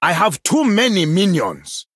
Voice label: Male Troll, ERROR FULL MINIONS; en